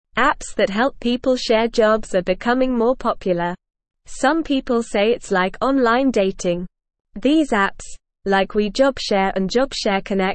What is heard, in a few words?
Upper Intermediate NORMAL Reading, English; English Newsroom; The Rise of Job Sharing Apps Increasing Flexibili